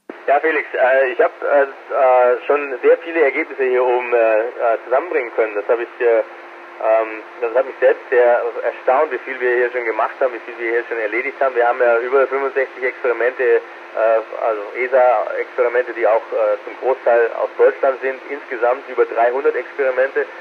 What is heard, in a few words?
ISS audio